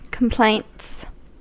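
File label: "complaints", US English; pronunciations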